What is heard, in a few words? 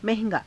"naha~gaa", Hindi